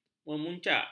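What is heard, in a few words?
"memuncak", Malay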